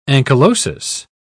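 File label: "ankylosis", British English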